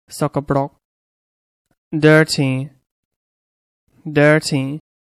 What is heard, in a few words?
"dirty", English; adj